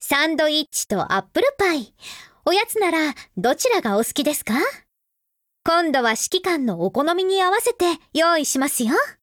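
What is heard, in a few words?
affinity Japanese; Springfield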